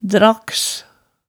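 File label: "drags", Hemsedal dialect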